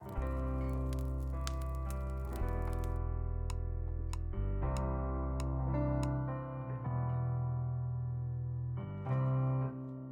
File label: dialogue brazilian; inFAMOUS Festival of Blood; ps3